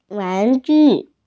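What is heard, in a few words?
"wan2ju4", Mandarin Chinese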